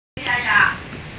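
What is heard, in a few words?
"skirt", female; qun2zi5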